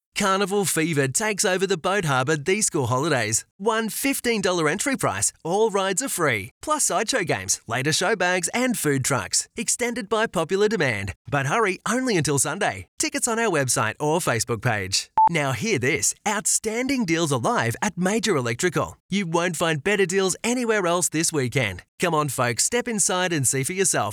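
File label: Live Announcer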